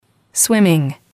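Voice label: "swimming", English, female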